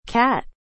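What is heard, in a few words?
"cat", English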